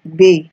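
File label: "bay", Nigerian Pidgin, female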